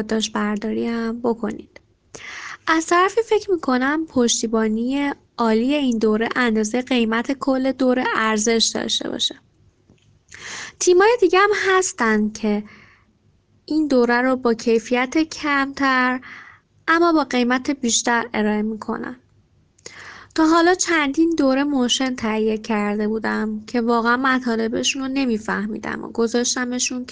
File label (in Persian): صدای مشتریان راضی